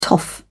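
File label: "toff", British English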